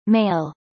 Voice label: male